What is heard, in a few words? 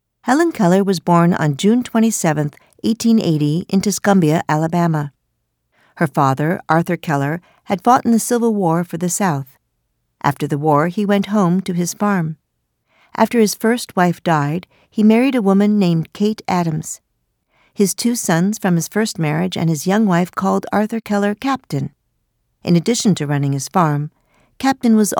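narration english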